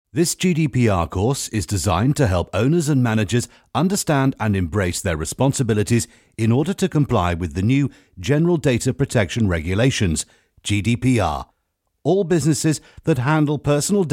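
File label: e-learning, male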